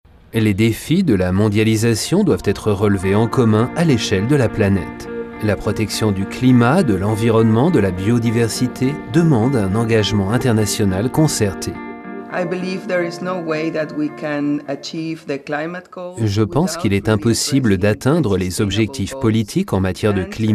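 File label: French, Sanft; Fremdtake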